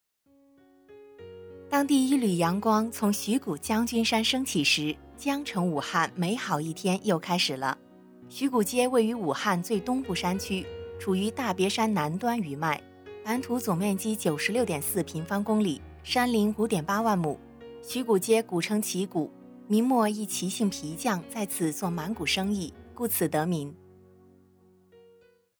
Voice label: 专题宣传

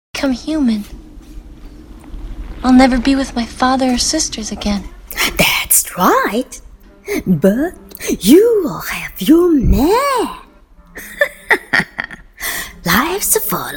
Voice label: 邪恶女巫英语配音美国动画电影; 小美人鱼片段

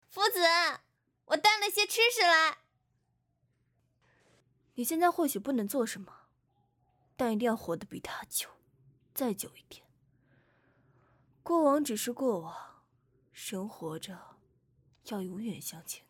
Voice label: 戏感

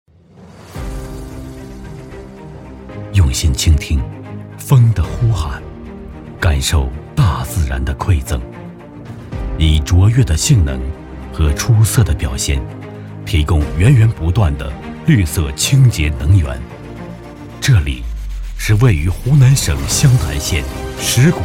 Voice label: 大气宣传; 昌山山脉

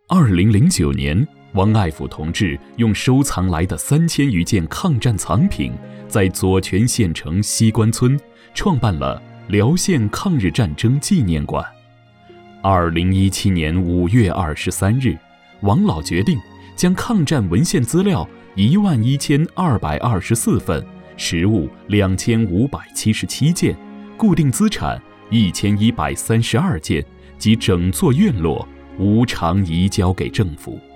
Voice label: 人物纪录片传记 老人; 太行·老人

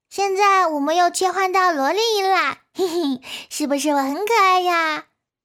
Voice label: 女童

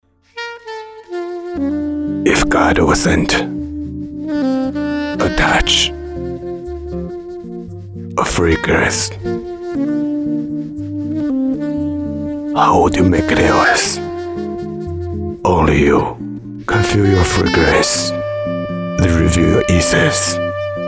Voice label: 英文; 迪奥香水

